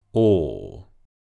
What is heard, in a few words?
long vowel sound ɔː, receivedpronunciation